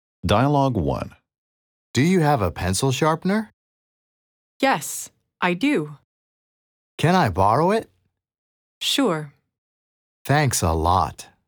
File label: Dialogue slow